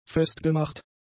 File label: "festgemacht", german; adjectives